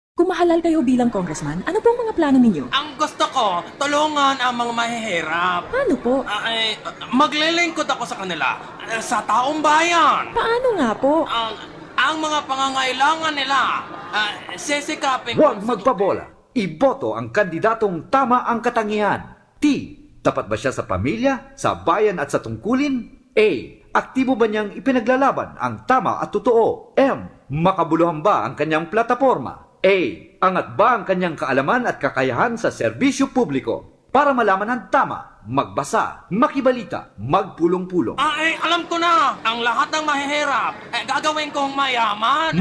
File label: Radio Ad; Congressman; mediavoteradvocacy